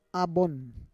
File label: "abon", Roon